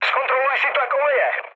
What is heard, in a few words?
damaged oil, speech cze, mood low; War Thunder